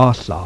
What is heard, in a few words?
voiceless l